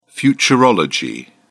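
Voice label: "futurology", British English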